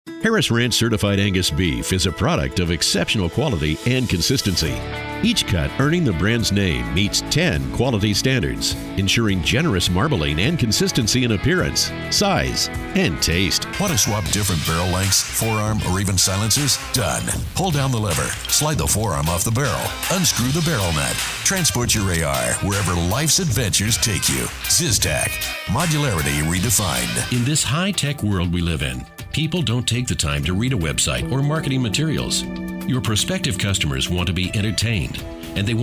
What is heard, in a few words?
Narration